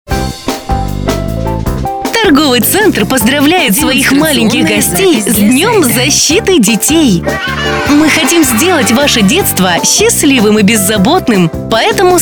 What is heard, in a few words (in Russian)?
Поздравление с 1 июня - День защиты детей женский